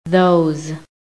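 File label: "those", American English; PHONETIC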